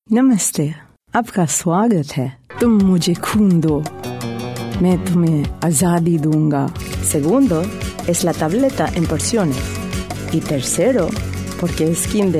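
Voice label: Showcase Multi Lang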